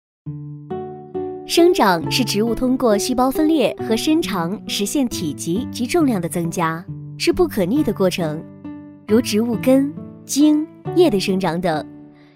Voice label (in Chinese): 女,读物 植物生长